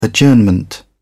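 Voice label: "prorogue", British English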